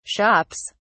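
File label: "shops", British English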